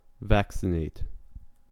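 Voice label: "vaccinate", English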